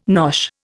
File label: "nosh", Indian